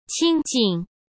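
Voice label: "清静", Mandarin Chinese; hsk; chinese-flashcards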